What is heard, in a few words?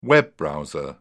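"web browser", British English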